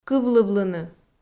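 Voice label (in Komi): "кывлывлыны", Komi, female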